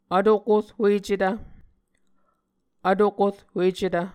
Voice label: "vtokorhuecetv", Muscogee

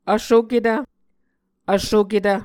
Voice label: "vsoketv", muscogee

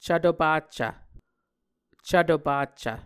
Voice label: "cvto paccv", Muscogee; Mvskoke Words with Repeated Consonants; Martin, Jack B., and Margaret McKane Mauldin. 2023. A Dictionary of Creek/Muskogee

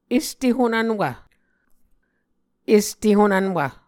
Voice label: "este honvnwv", Muscogee

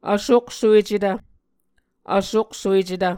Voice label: "vsoksuecetv", muscogee

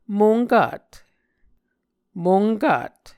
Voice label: "monkat", Muscogee

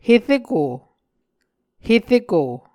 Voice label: "hereko", Muscogee

